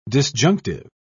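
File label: "disjunctive", English, British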